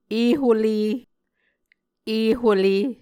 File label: "ehole", muscogee